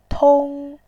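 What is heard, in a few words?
"tong", Mandarin Chinese, first tone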